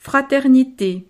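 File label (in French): "fraternite", French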